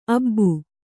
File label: "ಅಬ್ಬಿ", kannada